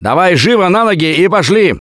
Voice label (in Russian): Revive Friend Loud, left4dead2 russian, Survivor Voice; Gambler